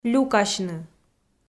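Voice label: "люкасьны" Komi, female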